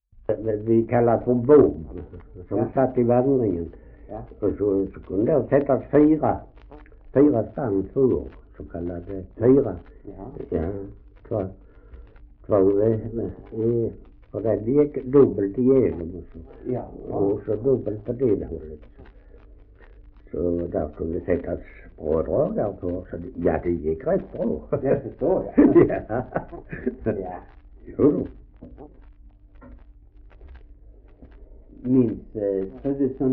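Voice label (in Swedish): Ausås Skåne, Svenska sagor dialekter; Folkminnen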